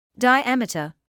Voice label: "diameter", medical english

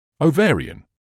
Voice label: "ovarian", English

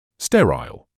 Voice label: "sterile", English

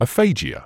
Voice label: "aphagia", medical english uk; uh-FAI-jeer; əˈfeɪ.dʒɪə

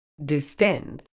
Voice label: "distend", uk

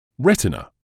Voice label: "retina", English

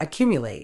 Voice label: "accumulate", Medical English; əˈkjuː.mjʊ.leɪt; uh-KYOO-myuu-layt

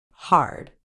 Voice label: "hard", medical English

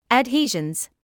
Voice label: "adhesions", English

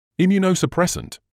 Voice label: "immunosuppressant", English

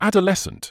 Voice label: "adolescent", medical english uk; ad-uh-LESS-uhnt; ˌæd.əˈles.ənt

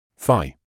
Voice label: "thigh", English

